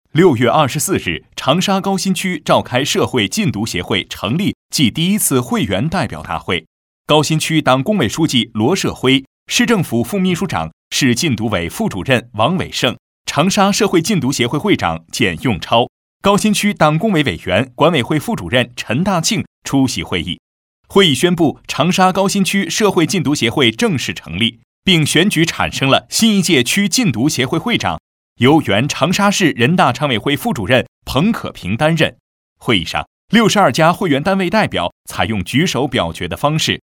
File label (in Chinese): 新闻; 麓谷